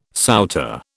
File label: "souter", British